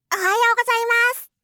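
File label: "ohayou gozaimasu", Japanese; aisatsu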